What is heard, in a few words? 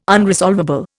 "unresolvable", Indian